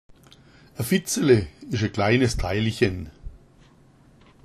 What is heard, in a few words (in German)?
fitzele, schwaebisch